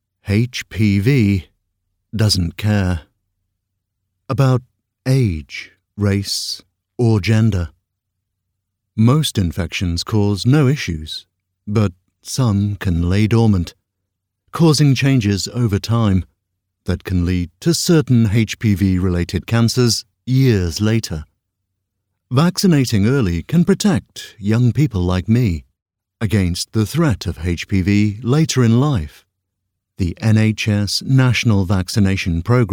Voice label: voiceover medical hpv advert

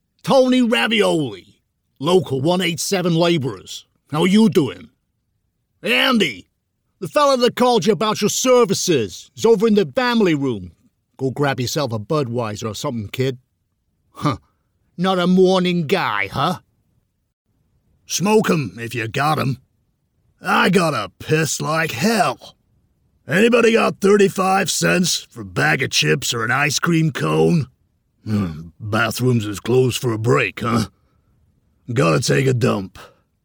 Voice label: American Accent Characters

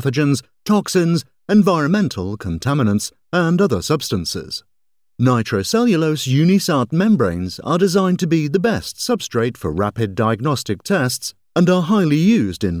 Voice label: voiceover medical lateral flow assays

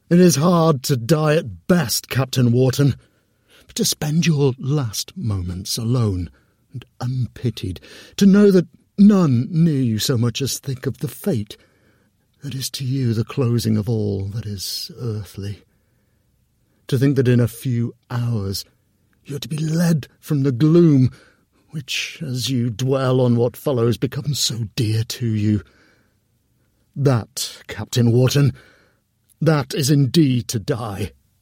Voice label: Historical Fiction, audiobooks; British

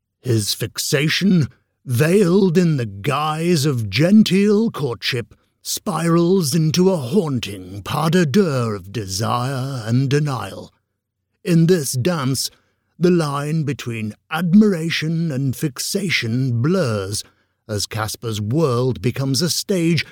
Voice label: audioguide character story summary; voiceover; audioguides

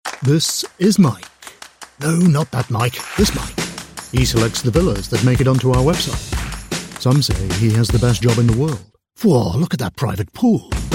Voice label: voiceover upbeat luxury villa commercial

